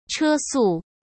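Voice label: "车速", Mandarin Chinese; hsk; chinese flashcards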